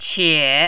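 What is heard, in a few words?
"qie", Mandarin, third tone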